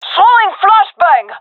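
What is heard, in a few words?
throwing flashbang, gendarmerie female; vo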